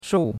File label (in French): "shou4", chinois; Cours-de-Chinois